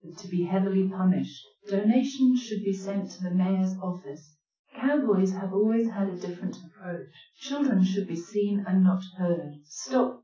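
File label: female speech; SiSEC10; underdetermined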